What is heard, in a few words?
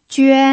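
"juan" first tone, pinyin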